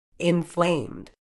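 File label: "inflamed", British English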